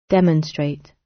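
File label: demonstrate, British; Language, linguistics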